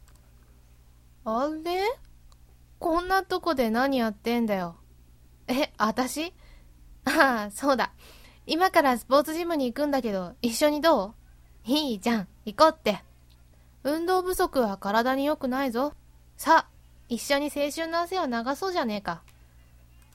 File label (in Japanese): boys; ボーイッシュ; 少女; N的声題; 「少女--1.ボーイッシュ」